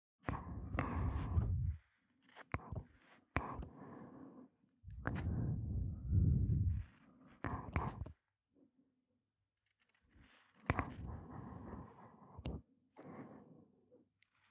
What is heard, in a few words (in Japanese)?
両耳ゆっくりカリカリ囁き責め; 汎用性抜群のオナサポ素材